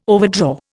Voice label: "overdraw", Indian

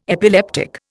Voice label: "epileptic", Indian

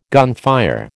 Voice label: "gunfire", American

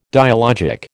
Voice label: "dialogic", American

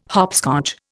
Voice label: "hopscotch", Indian

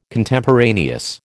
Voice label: "Contemporaneous", American

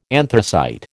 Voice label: "anthracite", American

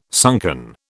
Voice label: "sunken", British

